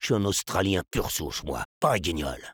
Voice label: sniper taunts, fr; Réponses du Sniper